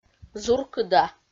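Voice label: "зуркыда", Komi-Zyrian, female; audio dict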